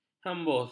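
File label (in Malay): "hembus", Malay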